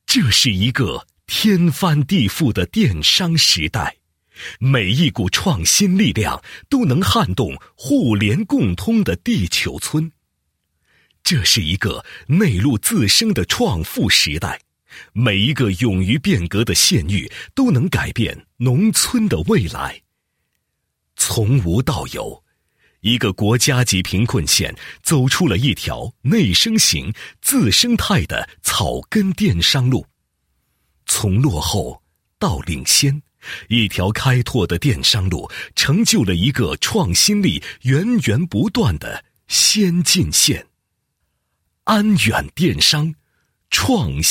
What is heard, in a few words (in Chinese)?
男 解说词; 某县推动电商发展